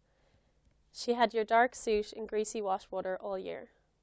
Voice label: Babble